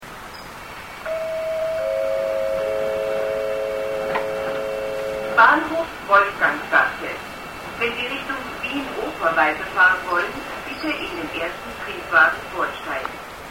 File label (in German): Haltestellenansagen, Österreich; Wien (Badner Bahn), Wolfganggasse; Bahnhof Wolfganggasse. Wenn Sie Richtung Wien-Oper weiterfahren wollen, bitte in den ersten Triebwagen vorsteigen.